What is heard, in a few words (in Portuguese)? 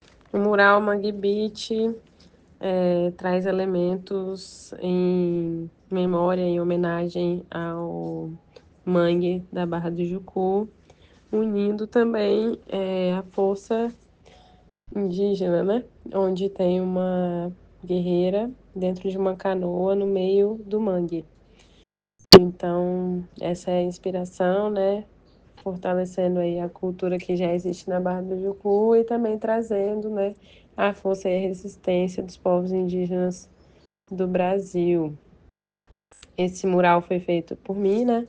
Audiodescricao; Projeto V Galeria Livre; Museu Vivo Barra Jucu; residencia artistica